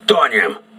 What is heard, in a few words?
voice message hull breach, crew ships russian